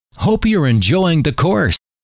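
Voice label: hope you re enjoying the course, male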